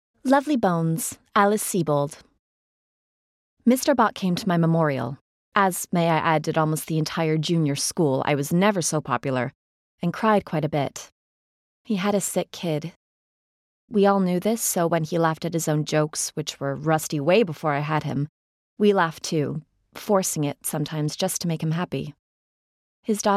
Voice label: audiobook